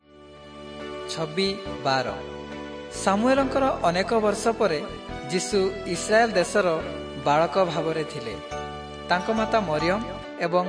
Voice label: southern oriya; servants of god